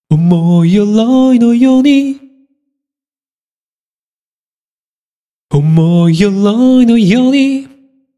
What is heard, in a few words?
voice commentary bump